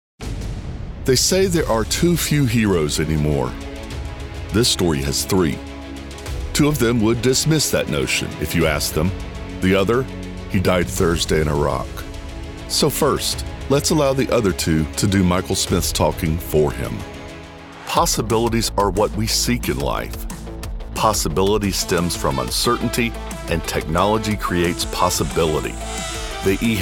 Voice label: Narration